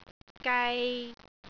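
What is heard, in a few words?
"gai3", Cantonese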